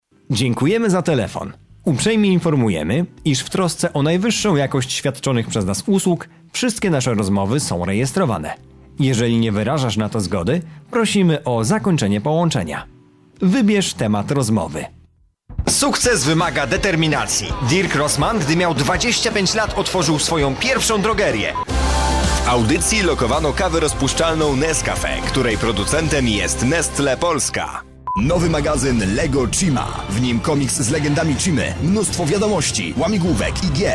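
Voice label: Polish, male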